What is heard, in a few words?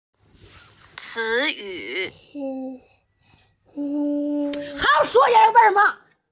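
"ci yu", Mandarin Chinese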